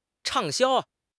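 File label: "畅销", Mandarin Chinese; HSK; HSK6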